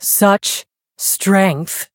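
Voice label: Voice encounter fear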